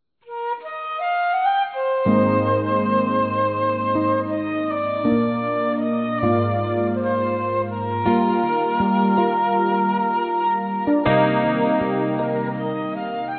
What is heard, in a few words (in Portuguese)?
NAMORO EVANG. F; Aniversários; ANIVERSARIO romantico; fem